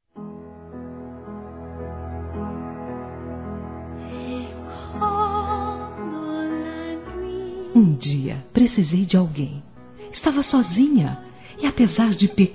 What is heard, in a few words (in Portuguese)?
MÃE ADOTIVA F, Telemensagens, Dia das Mães; Telemensagens 2017